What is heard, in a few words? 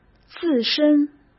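"自身", Mandarin Chinese; chinese-flashcards; hsk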